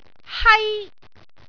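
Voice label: "hai1", Cantonese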